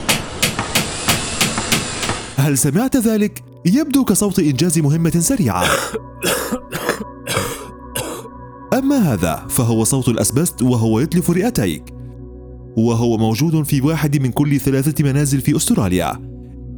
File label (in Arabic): RADIO ARABIC; asbestos